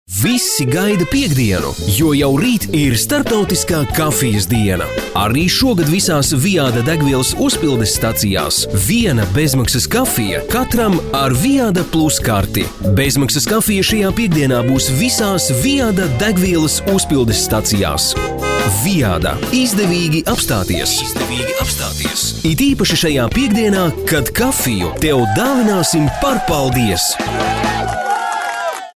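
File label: Latvian, male, commercial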